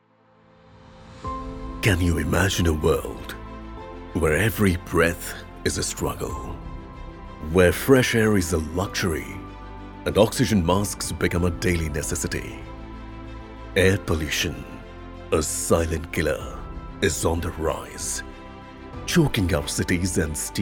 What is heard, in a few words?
Product Documentary Genre Presentable Engaging